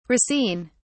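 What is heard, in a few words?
"racine", British English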